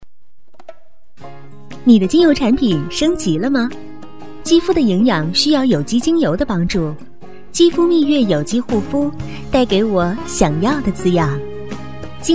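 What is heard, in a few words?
girl, Chinese, advert; jimiyue wenxin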